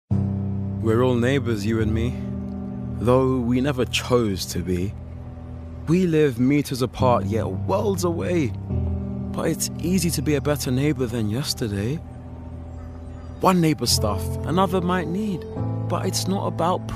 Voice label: Commercial Reel